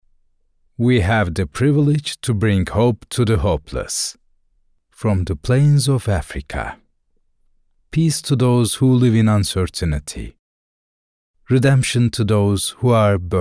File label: english corporate videos